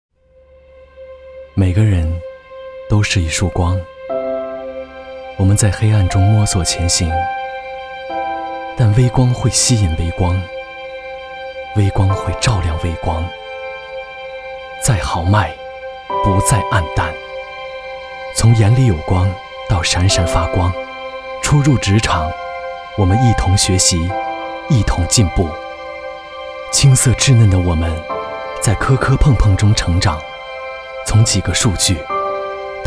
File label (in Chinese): 男 走心独白; 2023届校招